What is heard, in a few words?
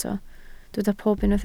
"pob", Welsh